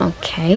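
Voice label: "okay", female voice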